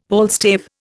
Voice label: "palstave", Indian; Dictionary

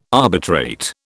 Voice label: "arbitrate", British

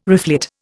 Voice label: "reflet", Indian

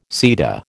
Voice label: "seta", American

